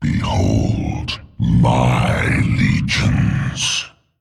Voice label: Male Demon Trigger